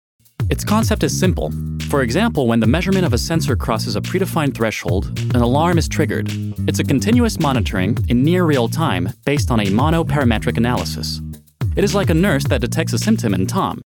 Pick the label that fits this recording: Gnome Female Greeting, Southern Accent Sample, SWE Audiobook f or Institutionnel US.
Institutionnel US